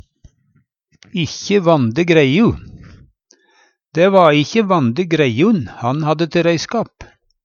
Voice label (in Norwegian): ikkje vande greiu, Numedalsmål; dårlege, skrale saker